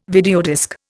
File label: "videodisc", Indian